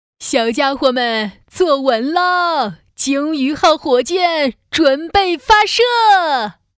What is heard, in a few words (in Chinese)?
成人奶奶; 鲸鱼